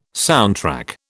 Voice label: "soundtrack", British